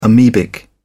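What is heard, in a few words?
"amebic", English, British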